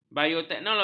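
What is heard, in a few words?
"bioteknologi", Malay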